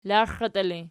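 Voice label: "laxatii" ergative, Archi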